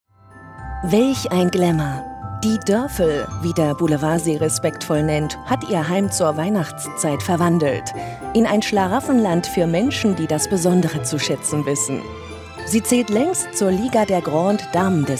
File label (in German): Doku; ARD; Trixie Wonderland